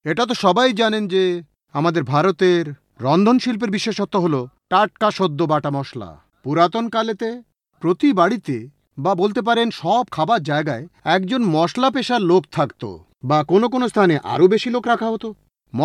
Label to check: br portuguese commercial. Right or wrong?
Wrong